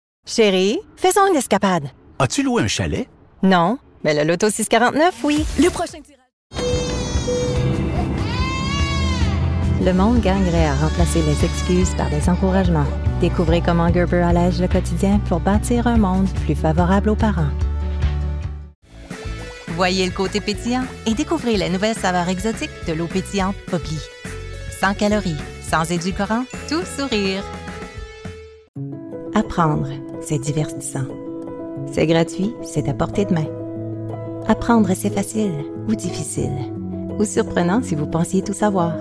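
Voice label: French Commercial; Lotto 649; Gerber; Bubly; Hilroy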